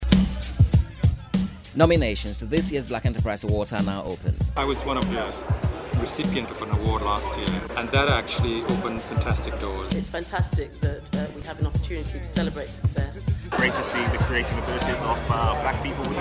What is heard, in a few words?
radio ad